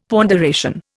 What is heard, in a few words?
"ponderation", Indian; Dictionary